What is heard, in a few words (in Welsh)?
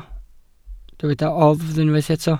"oddf" Welsh